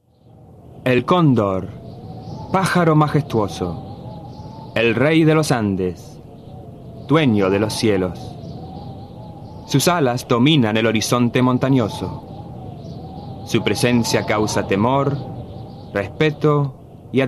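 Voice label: Latin American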